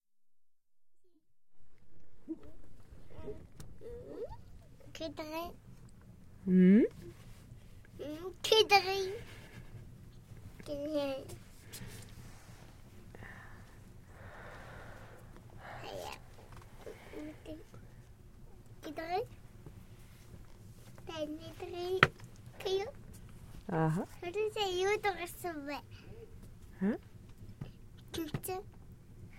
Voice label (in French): aperitif conversation